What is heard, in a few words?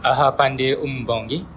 do you speak, bonggi